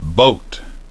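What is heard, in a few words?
"boat", English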